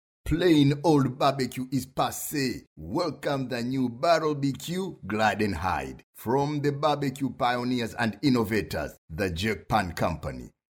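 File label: English, Commercial; GoLocalise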